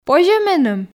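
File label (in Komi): позьӧ меным, Komi, female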